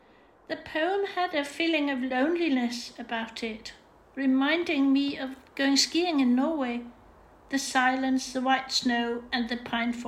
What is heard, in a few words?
audio voiceover